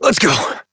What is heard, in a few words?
Horse Start Adult, Dialog; Duviri